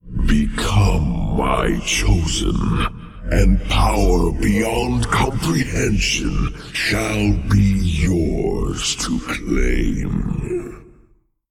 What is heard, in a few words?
male old god; YShaarj